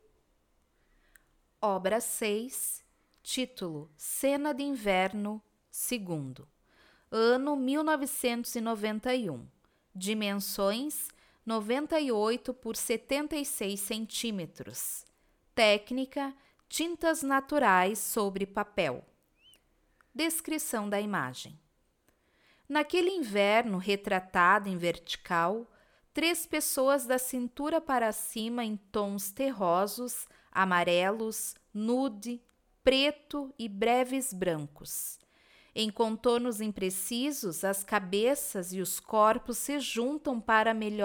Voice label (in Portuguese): audiodescricao; Galeria Virtual